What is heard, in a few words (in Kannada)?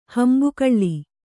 "ಹಿಂಬಗಲು", Kannada